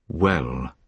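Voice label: "well", British English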